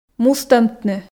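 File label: "мустӧмтны", Komi, female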